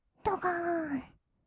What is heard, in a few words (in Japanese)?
"どがーん", kawaii voice; ASMR; 色々なワンショット